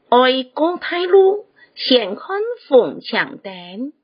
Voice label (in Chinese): (四縣)客語; 生活常規